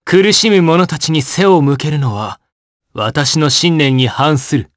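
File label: vox dialogue, voices, Japanese; kingsraid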